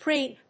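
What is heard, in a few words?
"preamp", English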